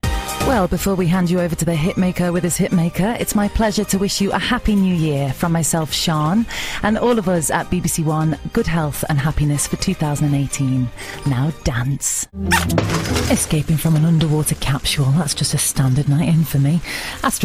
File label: Continuity